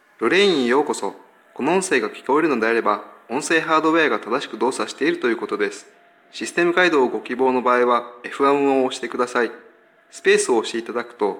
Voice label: Japanese, greeting